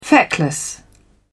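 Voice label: "feckless", British English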